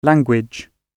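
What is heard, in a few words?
"language", British English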